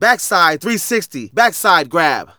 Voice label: Narration; Ollie King